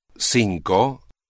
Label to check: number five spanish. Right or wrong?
Right